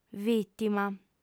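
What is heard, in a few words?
"vìtima", Sardinian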